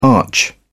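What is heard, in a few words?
"arch", British English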